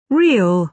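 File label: "real", British English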